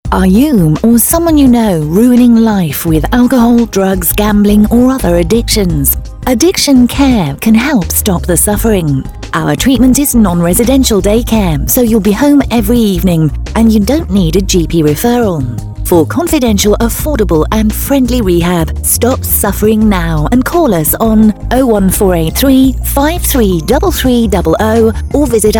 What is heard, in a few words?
Radio Advert